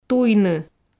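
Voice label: "туйны", Komi, female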